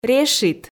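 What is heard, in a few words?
"решит" Komi, female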